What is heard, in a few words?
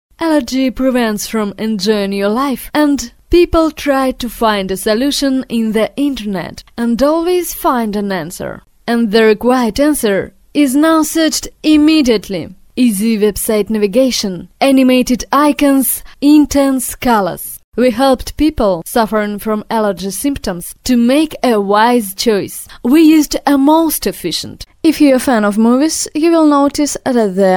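lang anglijskij, English language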